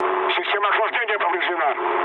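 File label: Russian damaged water mood med, speech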